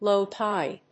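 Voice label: "low tide", English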